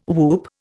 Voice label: "whoop", Indian